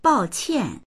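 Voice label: "bao qian", Mandarin; 抱歉; すまなく思う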